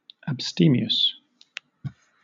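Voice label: "abstemious", British English; pronunciation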